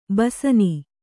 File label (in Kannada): "ಬೇಸಿನ್", Kannada